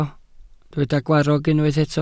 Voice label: "gwarrog", Welsh

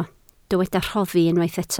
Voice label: "rhoddi", Welsh

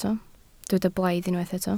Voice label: "blaidd", Welsh

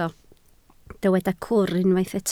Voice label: "cwr", Welsh

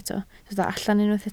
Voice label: "allan", Welsh

